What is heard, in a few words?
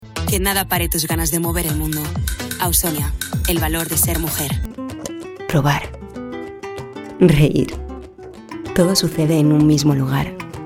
Spanish Castilian and Valencian, voces femeninas